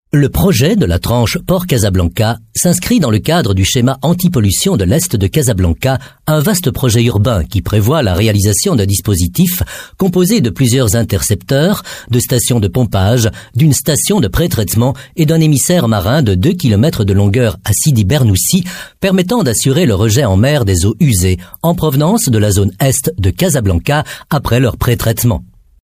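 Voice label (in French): Belgian French, male, narration; voice demos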